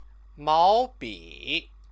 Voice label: "maobi", Chinese